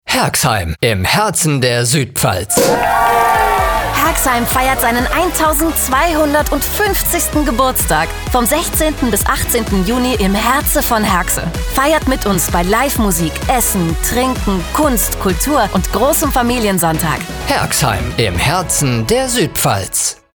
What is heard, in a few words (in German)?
werbung